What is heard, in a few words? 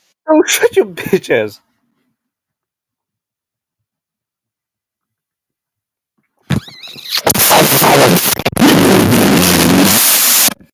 voice message